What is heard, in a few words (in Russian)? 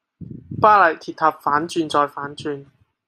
Голоса, Гонконгский; commonvoice